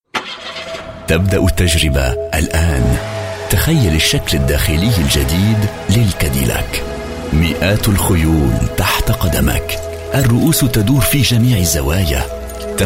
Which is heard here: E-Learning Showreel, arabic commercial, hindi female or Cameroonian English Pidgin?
arabic commercial